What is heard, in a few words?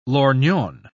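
"lorgnon", British English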